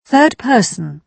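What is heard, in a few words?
"third person", British English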